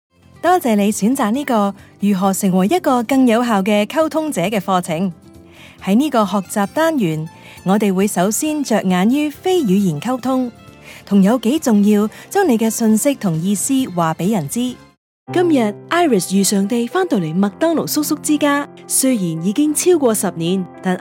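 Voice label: Canto narration, Cantonese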